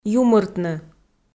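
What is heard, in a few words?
"юмыртны", Komi, female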